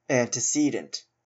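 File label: "antecedent", Canadian English; pronunciations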